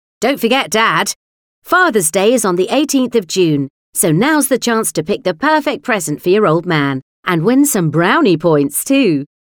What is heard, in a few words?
UK Fathers Day Female